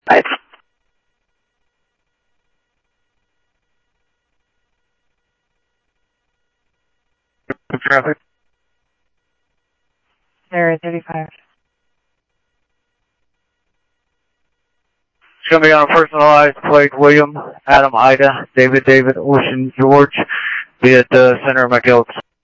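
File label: Sheriff East County, scanner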